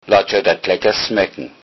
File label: bon appetit, lowsaxon